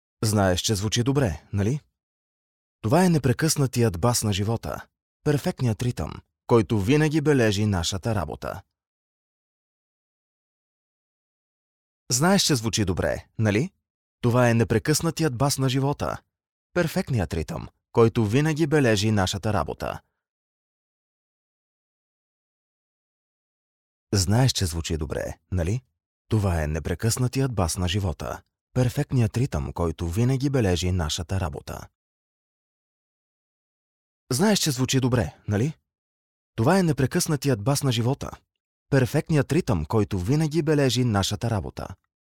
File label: male Bulgarian smooth, recited, commercial